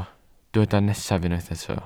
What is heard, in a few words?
"nesaf", Welsh